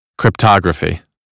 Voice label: "cryptography", American; English